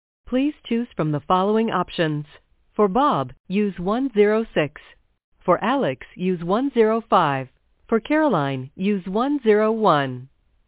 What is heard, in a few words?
Press 9 Female